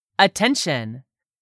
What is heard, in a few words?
"attention", english; 3000 words